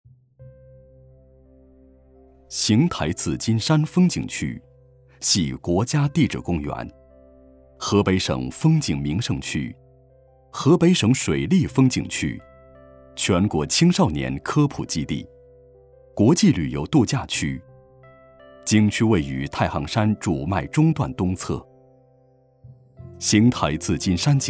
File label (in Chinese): 男 旅游专题, 国语男声配音; 五杰山